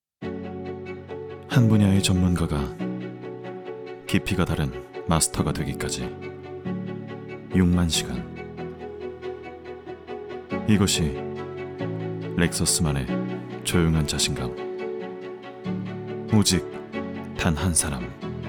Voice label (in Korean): 광고 고급진 자동차